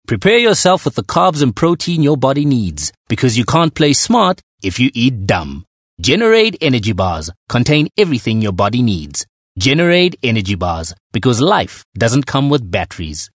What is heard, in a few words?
English bright